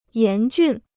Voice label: "严峻", Chinese